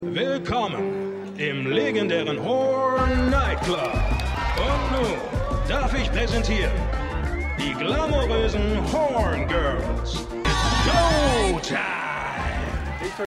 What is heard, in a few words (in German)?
Nightclub Moderator; Stimmproben; Horn Nightclub Moderator; Winning Time